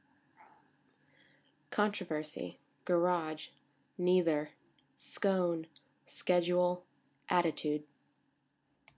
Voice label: San Diego CA USA female